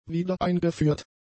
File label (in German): "wiedereingeführt", German; adjectives